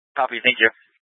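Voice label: Incident Tactical, scanner audio recording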